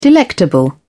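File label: "delectable", British English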